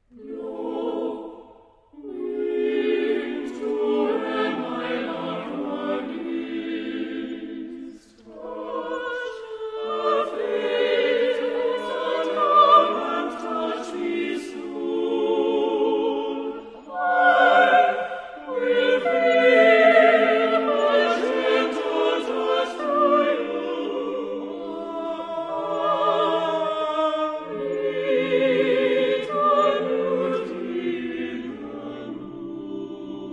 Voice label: Sanskrit Verses